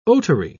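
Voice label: "otary", British English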